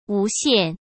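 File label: "无线" Mandarin Chinese; chinese flashcards; hsk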